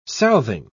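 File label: "southing", English, British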